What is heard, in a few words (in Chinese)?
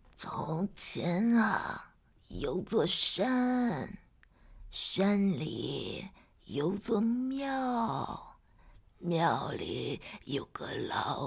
老太太音; 从前有座山